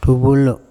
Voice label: "ThubolO", Andamanese; my excreta